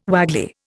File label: "waggly", Indian